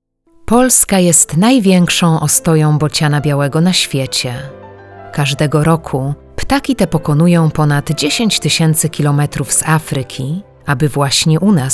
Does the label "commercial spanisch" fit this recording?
No